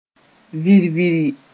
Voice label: "vir-viri", moore

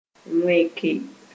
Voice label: "wẽke", Moore

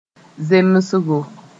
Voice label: "zemsgo", moore

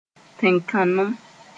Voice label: "tẽnkãnem", Moore

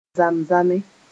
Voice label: "zam-zame", moore

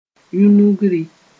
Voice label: "yũnugri", moore

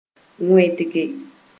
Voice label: "wẽndge", Moore

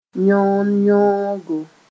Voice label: "yõnyõogo", moore

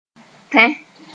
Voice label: "tẽ", Moore

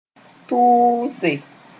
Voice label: "tʋʋse", moore; insult (many instances)

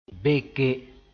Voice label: "beke", moore; intercept with a projectile

